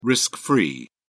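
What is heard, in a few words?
"risk-free", British English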